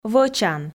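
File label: "вӧчан", Komi, female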